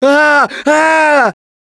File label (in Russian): Incapacitated Injury, left4dead2 russian, Survivor Voice; Gambler